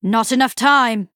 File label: Female Night Elf Time